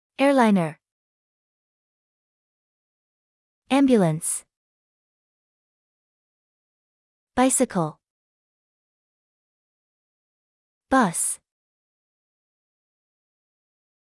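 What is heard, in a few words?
American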